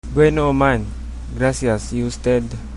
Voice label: i'm fine, chabacano